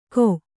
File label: "ಖೋ", Kannada